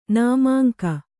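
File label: "jharati" Kannada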